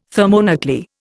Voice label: "thermonuclear", Indian